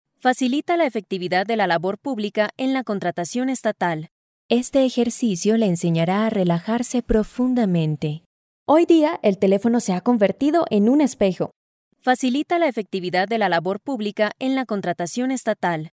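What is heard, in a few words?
Spanish Latin America female narrative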